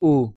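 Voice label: "u", Spanish pronunciation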